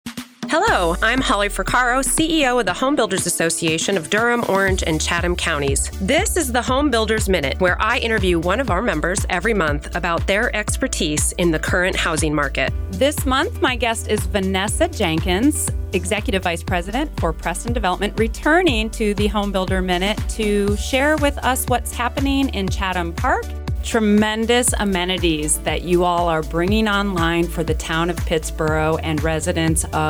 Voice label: COMMERCIAL